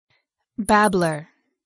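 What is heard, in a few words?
"babbler", English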